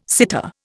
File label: "sitar", Indian